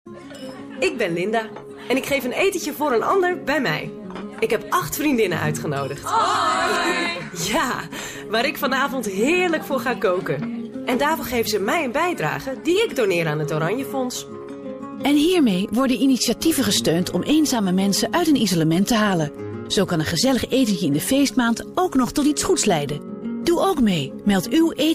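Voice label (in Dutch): dutch female commercial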